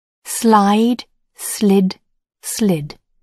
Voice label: "slide", English